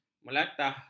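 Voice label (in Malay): "melatah", Malay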